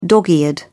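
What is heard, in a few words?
"dog eared", British English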